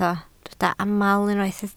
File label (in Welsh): "aml", Welsh